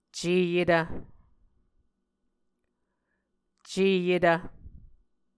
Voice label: "ceyetv", muscogee